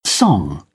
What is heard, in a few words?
"song", British English